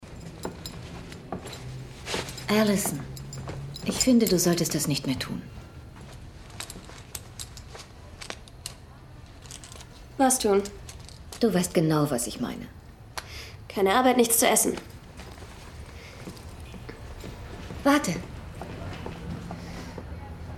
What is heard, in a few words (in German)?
Eskalierender Streit